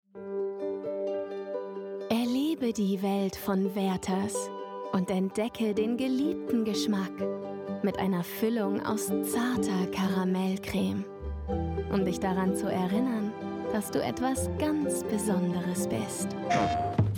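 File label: Commercials German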